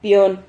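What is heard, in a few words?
"pion", Spanish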